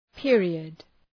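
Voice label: "period", English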